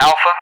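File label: "Alpha", English; Alphabet; DCS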